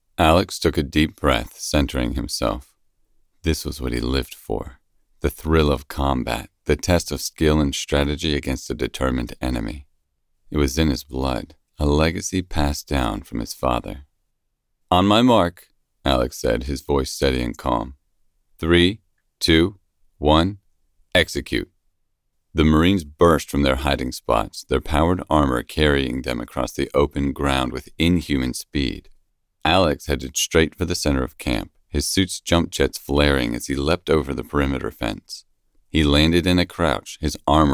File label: Science Fiction Battle Action Male Female 3rd Person